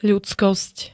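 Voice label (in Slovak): "ľudskosť", slovenské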